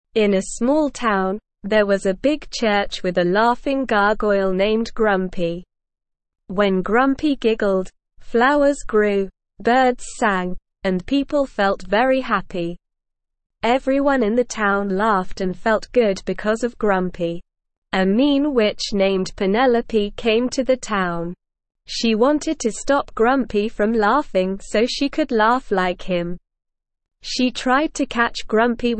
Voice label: ESL Short Stories for Kids Beginner SLOW Reading; The Great Giggling Gargoyle

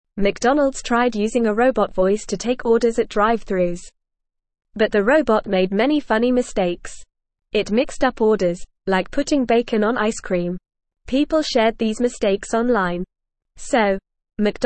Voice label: English Newsroom Beginner FAST Reading; McDonalds Robot Voice Made Funny Mistakes

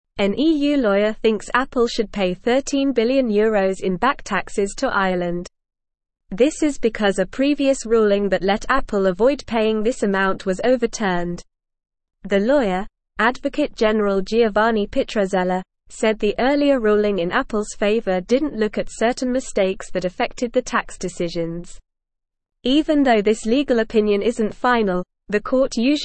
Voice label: English Upper Intermediate NORMAL Reading; EU Adviser Recommends Overturning Ruling on Apple; English Newsroom

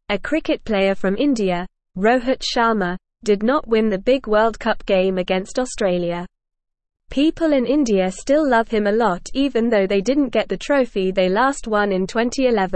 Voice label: English Lower Intermediate NORMAL Reading; Rohit Sharma A Cricket Hero Who Never Gives Up; English Newsroom

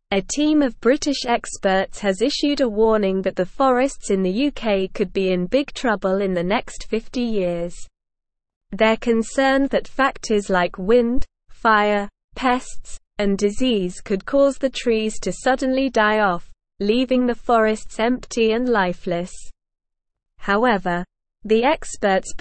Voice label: English Upper Intermediate SLOW Reading; UKs Forests Facing Catastrophic Collapse Experts Wa; English Newsroom

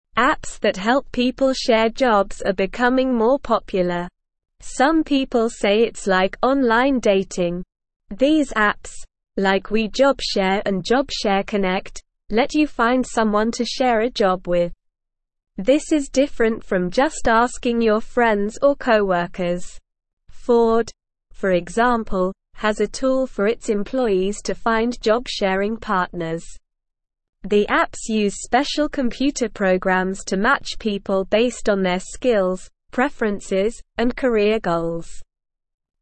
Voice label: Upper Intermediate SLOW Reading, English; English Newsroom; The Rise of Job Sharing Apps Increasing Flexibility

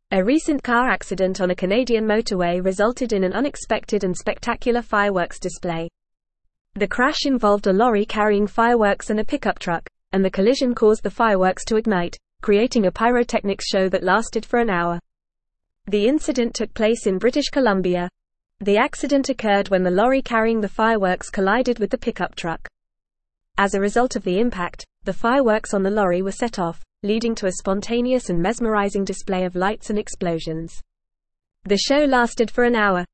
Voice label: English Newsroom Advanced FAST Reading; Car Crash Ignites Fireworks Creates Spectacular Show

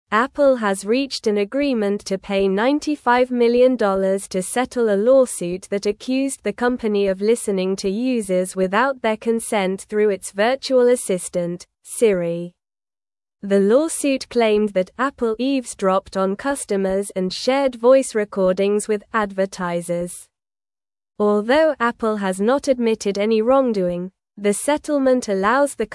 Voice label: English Advanced SLOW Reading; English Newsroom; Apple Settles Siri Eavesdropping Lawsuit for 95 Million

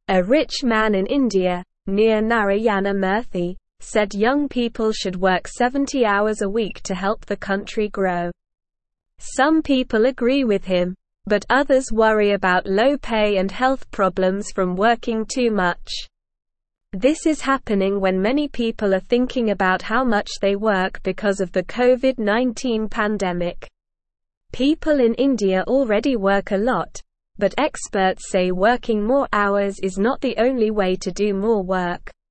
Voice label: English Lower Intermediate SLOW Reading; Should People Work 70 Hours a Week; English Newsroom

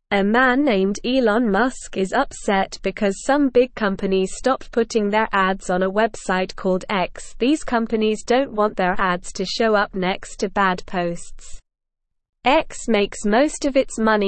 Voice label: English Newsroom Lower Intermediate SLOW Reading; Elon Musks Website X is in Trouble

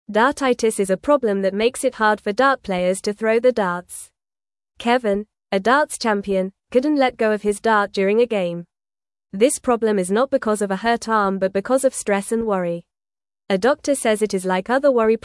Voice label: English Newsroom Beginner FAST Reading; Kevins Dart Problem and How He is Getting Help

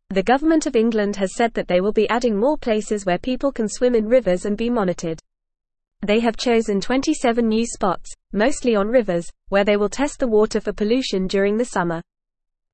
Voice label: English FAST Reading; Government Expands Monitored Bathing Sites But Qual

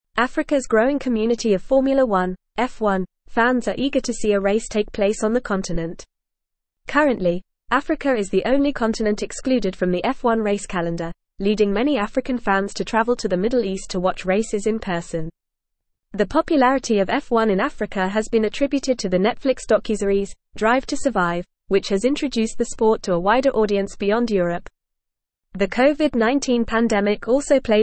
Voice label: English FAST Reading; Africas F1 Fans Demand Race on Continent

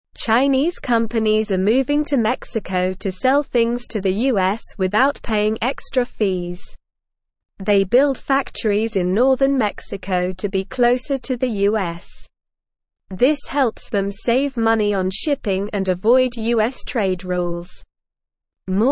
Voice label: English SLOW Reading; Chinese Companies Move to Mexico to Avoid US Fees

